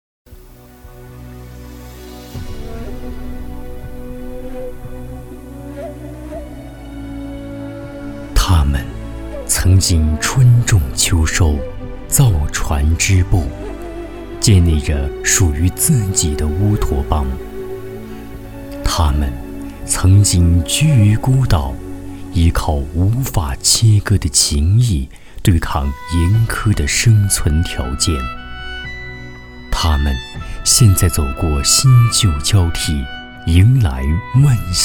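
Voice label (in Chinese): 人文讲述醇厚大气男声; 走出色朵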